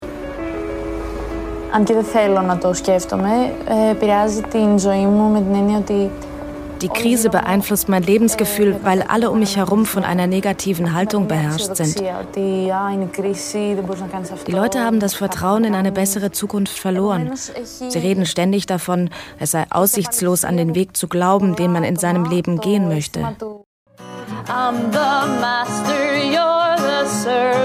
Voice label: voiceover; 3sat

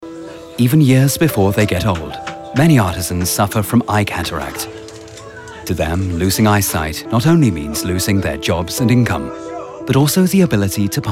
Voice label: documentary portraid

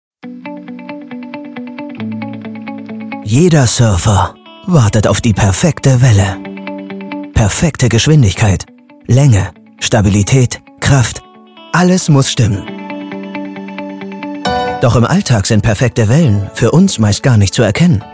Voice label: industrial radio; dke; iphone

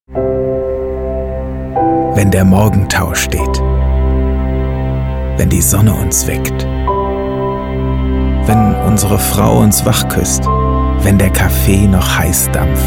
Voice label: commercial emotion

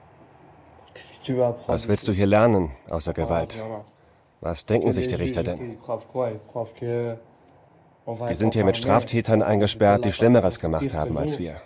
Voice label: voice over; arte

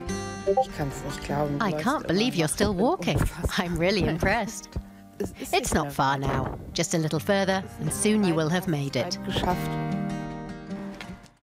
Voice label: voice over; Berlin; Muttersprache Englisch

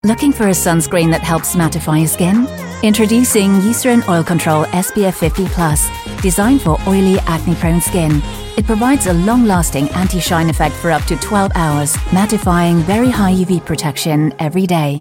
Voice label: spot; eucerin

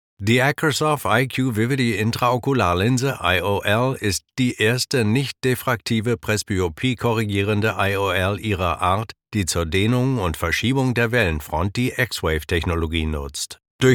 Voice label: German narration

